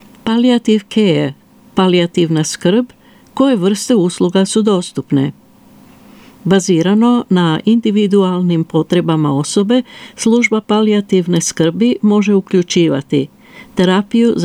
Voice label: Croatian; Which kind of services are available